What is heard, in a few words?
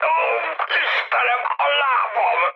damaged pilot, speech hun; War Thunder